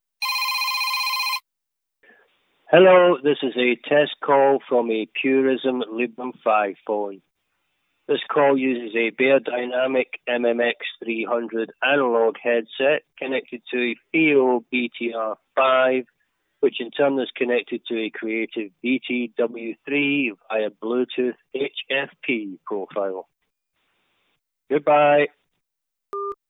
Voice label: Test Call, Beyerdynamic MMX 300 analog headset to Fiio BTR5 to Creative BT-W3; Librem 5